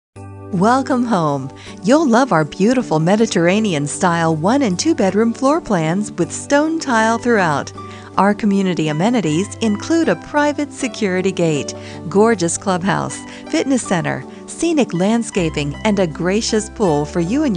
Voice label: apartment complex, female voices; audio on hold